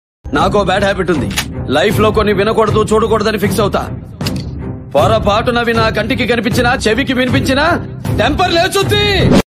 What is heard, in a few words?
powerful dialogues; Balakrishna; Balayya; dialogue shorts